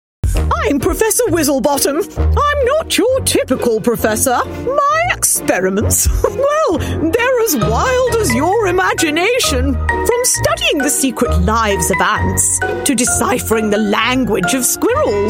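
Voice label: Character Professor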